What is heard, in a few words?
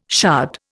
"shard", Indian